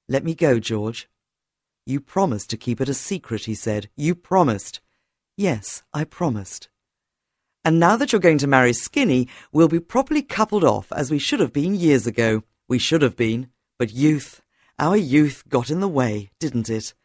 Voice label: audiobook